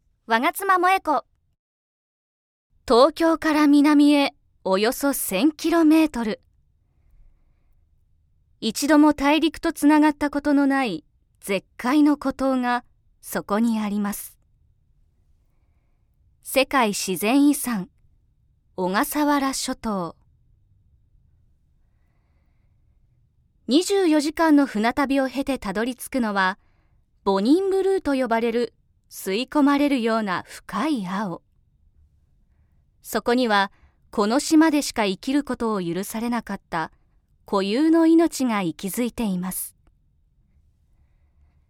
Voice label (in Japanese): ネイチャー系ナレーション